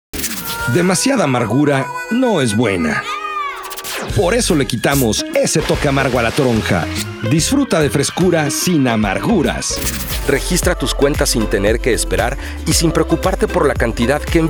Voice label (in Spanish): Comercial